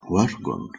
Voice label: "wergon" Ancient Greek